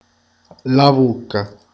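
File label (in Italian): "la bocca", Italian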